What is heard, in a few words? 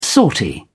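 "sortie", British English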